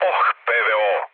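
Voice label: Serbian damaged aa mood low, speech; War Thunder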